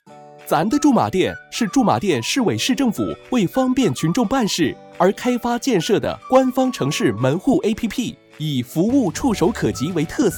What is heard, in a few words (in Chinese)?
国语政务